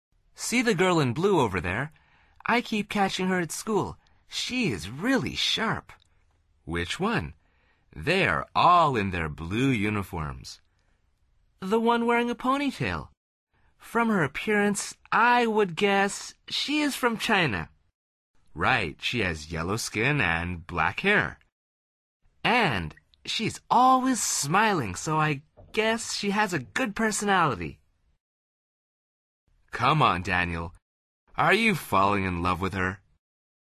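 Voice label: dialog, Conversación en Ingles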